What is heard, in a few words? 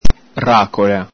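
"racola", Venetian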